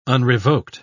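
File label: "unrevoked", English, British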